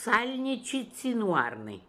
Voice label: "Salnichizinooarnian", pronounciations; Fourth Way; Beelzebub pronounciations